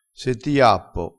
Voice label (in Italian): "spennecchia", crespinese